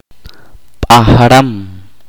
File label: "tigre", chorti